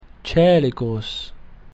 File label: "coelicus", latin